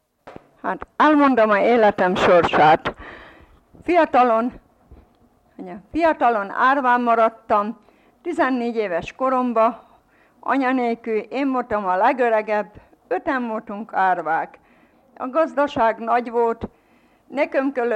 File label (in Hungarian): nyelvjaras gyujtesek